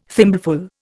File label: "thimbleful", Indian